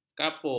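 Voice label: "kapur", Malay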